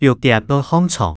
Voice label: 六點愛䟘床, 客語

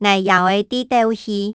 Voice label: 𠊎爺哀在鬥氣, 客語

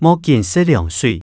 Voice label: 莫緊食涼水, 客語

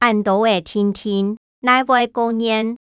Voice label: 恁多仔定定，哪會過願, 客語

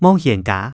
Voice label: 莫冤家, 客語

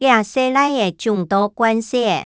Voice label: 這細倈仔縱到慣勢吔, 客語

